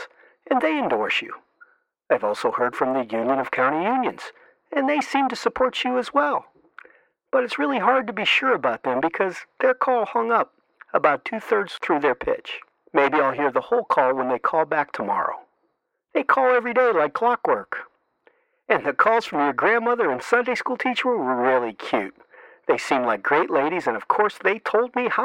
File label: Robocall